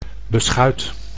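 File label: "beschuit", Dutch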